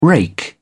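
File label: "rake", British English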